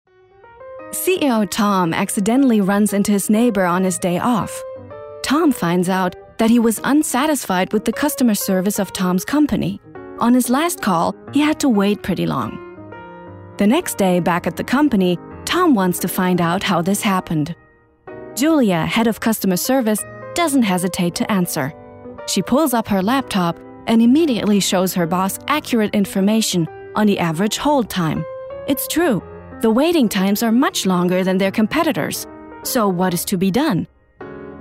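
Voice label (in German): US English, Kommentar Neutral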